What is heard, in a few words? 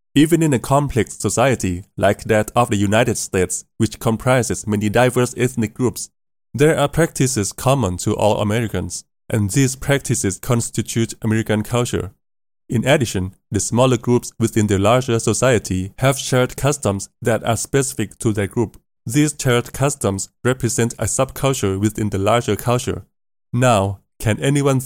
english commercial